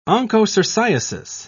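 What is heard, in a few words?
"onchocerciasis", English, British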